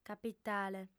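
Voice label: "capitale", female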